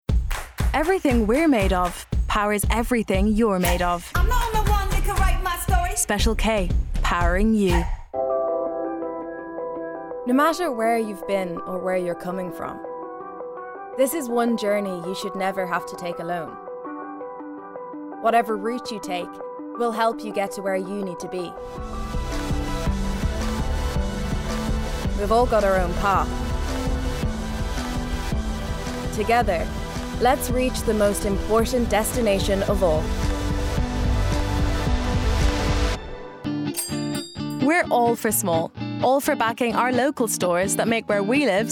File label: Commercials Montage